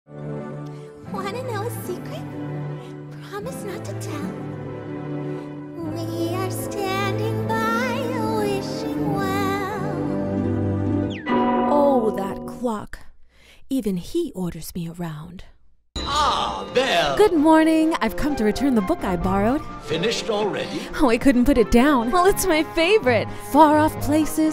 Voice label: Disney Princess Voices